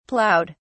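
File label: "plowed", British English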